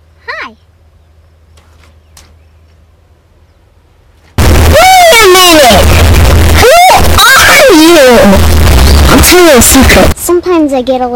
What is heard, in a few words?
earrape